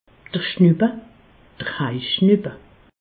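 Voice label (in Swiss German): "schnuppe", Alsace, Haut Rhin; medecine; rhume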